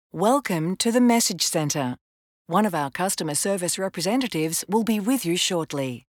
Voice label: welcome corporate female